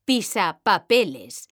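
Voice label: "pisa papeles", Spanish, female; mekanta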